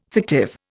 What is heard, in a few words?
"fictive", Indian